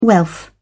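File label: "wealth", British English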